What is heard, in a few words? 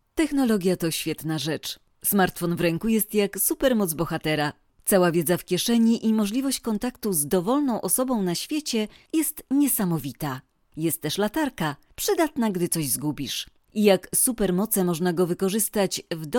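Polish Commercial; voice demos; GoLocalise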